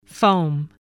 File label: "foam", spelling